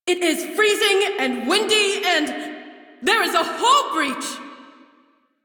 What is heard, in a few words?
Crew See Breach Female en; Railjack Crew; Cephalon Suda